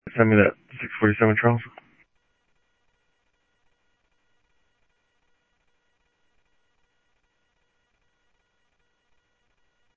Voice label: Sheriff scanner audio